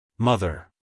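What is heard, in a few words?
"mother", American English, male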